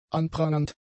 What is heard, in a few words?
"anprangernd", German; adjectives